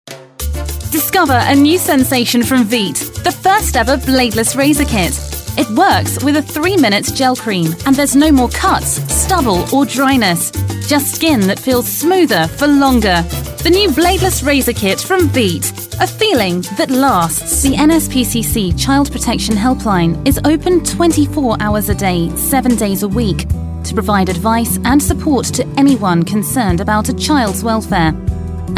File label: COMMERCIALS